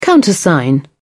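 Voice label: "countersign", British English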